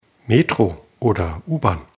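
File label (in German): "Metro", German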